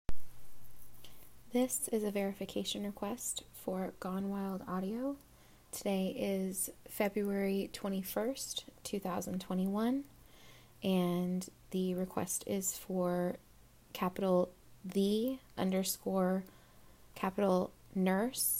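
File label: Female Verification Request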